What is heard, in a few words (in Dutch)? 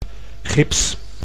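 "gips", Dutch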